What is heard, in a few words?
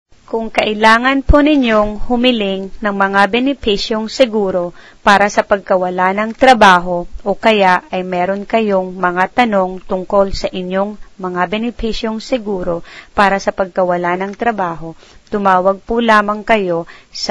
tagalog